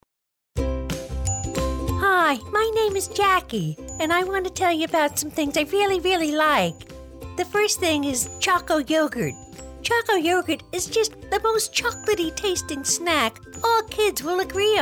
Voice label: CARTOON CHILD VOICE

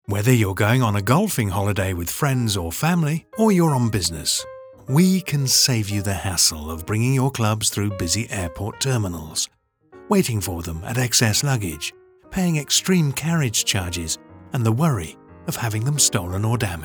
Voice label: explainer montage

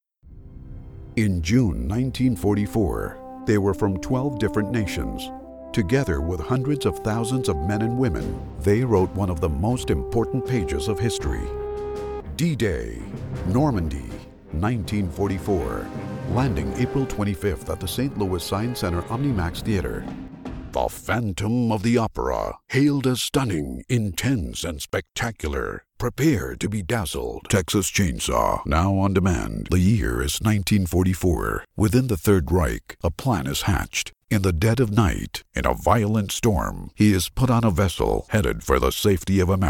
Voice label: Movie Trailers

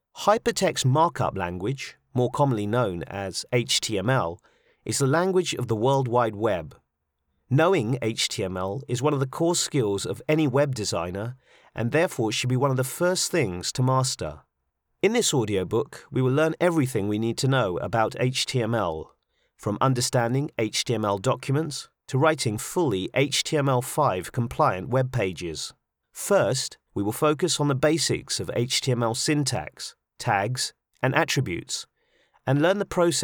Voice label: Narration

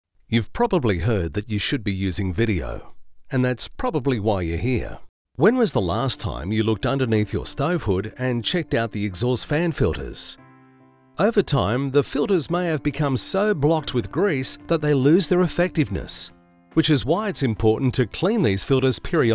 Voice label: Explainer

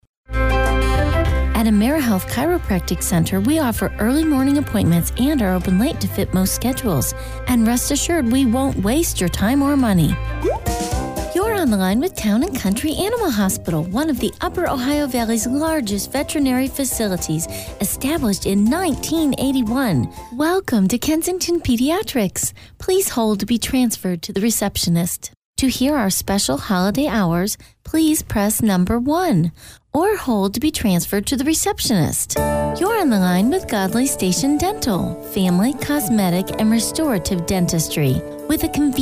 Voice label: Telephony

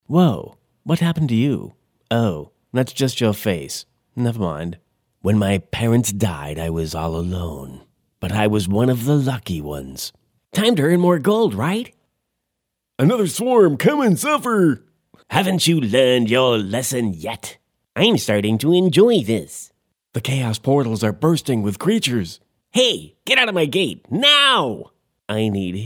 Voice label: character

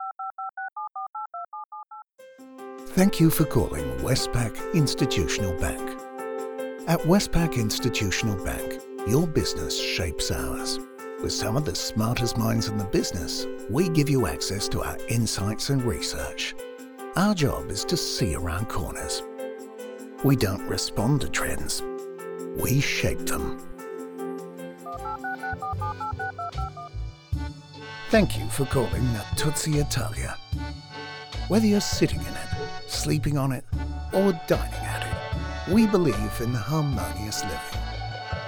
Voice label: Corparate on hold IVR

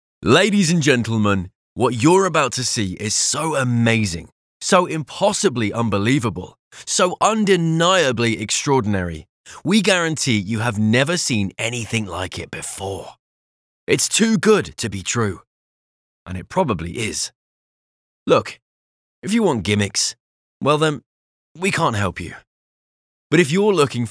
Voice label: Auto Group Ad

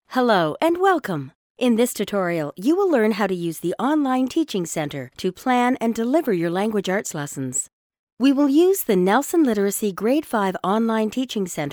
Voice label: Narration sample; Spanish (Latin American)

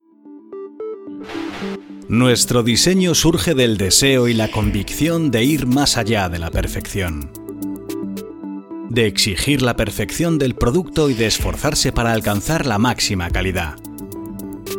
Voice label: Corporate video

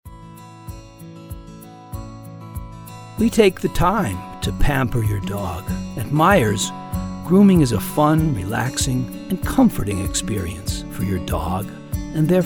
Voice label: Radio Demo and Music

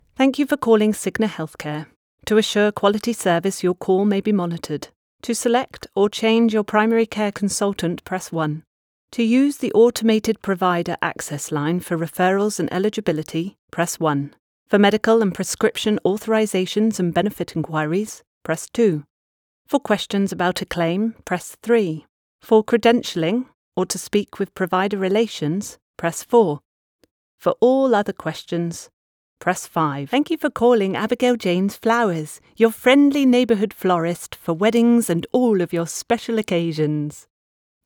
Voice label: IVR and Phone

